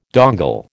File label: "dongle", American